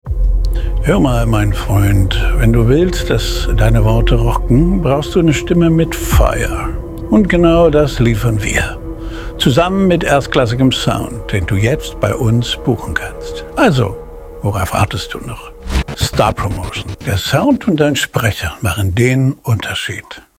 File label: Spot